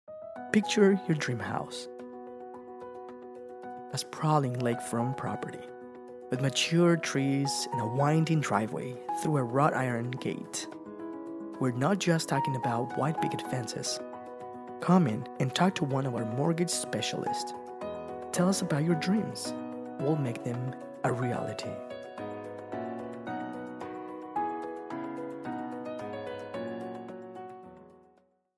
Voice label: Mortgage, bilingual voice talent; los angeles california